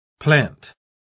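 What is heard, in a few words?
"plant", English word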